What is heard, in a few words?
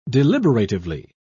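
"deliberatively", British English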